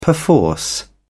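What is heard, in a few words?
"perforce", British English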